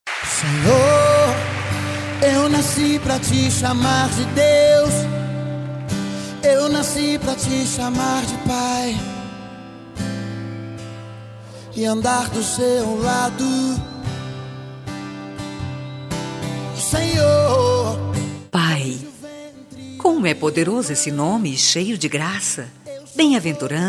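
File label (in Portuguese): aniversario evangelico pai feminino, telemensagem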